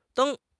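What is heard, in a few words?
"deng1", Chinese; HSK; HSK3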